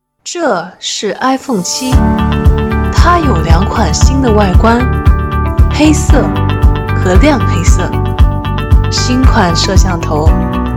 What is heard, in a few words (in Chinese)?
广告手机科技感